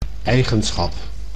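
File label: "eigenschap", Dutch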